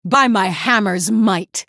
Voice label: female human start